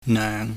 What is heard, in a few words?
"neung", pingyam